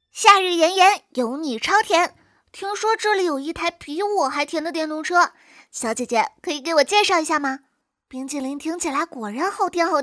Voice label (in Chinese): 女童